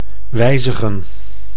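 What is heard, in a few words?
"wijzigen", Dutch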